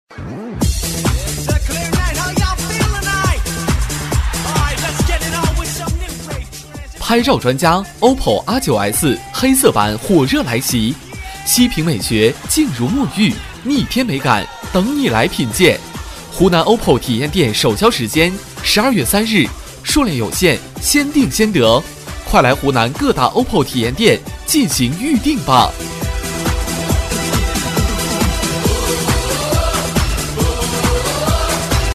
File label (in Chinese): 【促销】拍照专家摄影男 年轻激情